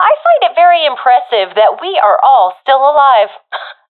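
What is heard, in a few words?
round won nocasualities, professional female; Counter Strike Model Pack; CS:GO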